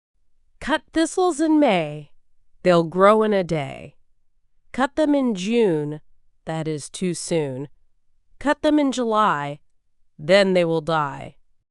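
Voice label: female US; Cut Thistles in May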